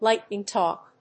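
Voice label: "Lightning Talk", English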